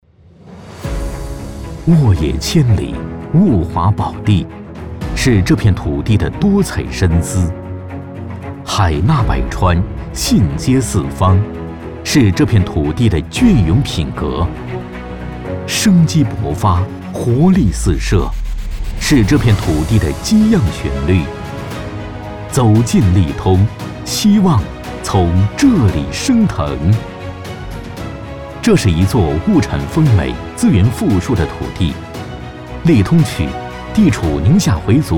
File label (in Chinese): 男 招商宣传; 利通